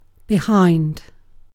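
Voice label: "behind", British English, adverb preposition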